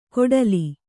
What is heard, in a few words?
"ಕೊಡಲಿ", Kannada